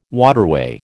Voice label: "waterway", American

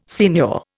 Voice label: "signor", Indian

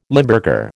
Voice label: "Limburger", American

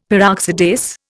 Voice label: "Peroxidase", Indian; Dictionary

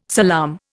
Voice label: "salaam", Indian

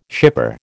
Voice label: "shipper", American

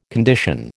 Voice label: "condition", American

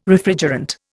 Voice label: "Refrigerant", Indian

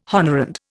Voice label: "honorand", Indian

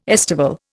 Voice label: "estival", Indian

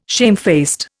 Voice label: "shamefaced", Indian

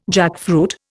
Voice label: "jackfruit", Indian

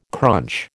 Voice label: "crunch", American

